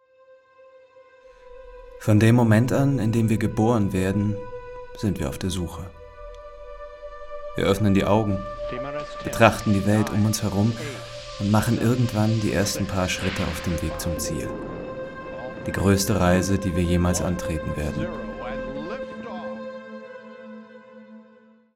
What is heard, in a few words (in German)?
Voice Over Film